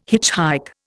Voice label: "hitchhike", Indian

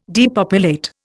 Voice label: "depopulate", Indian

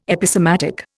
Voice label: "Episematic", Indian

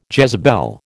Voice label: "Jezebel", American

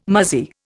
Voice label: "muzzy", Indian

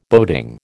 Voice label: "boating", American

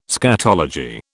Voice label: "scatology", British

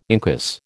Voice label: "incus", American

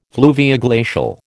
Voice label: "fluvioglacial", American

